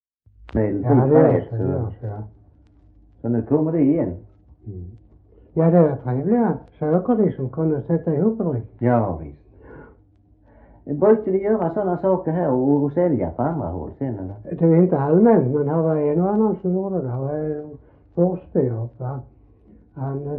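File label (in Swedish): S Röstånga, Swedish dialect; Folkminnen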